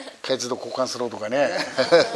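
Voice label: "kaedu", Minamiyamagata dialect Japanese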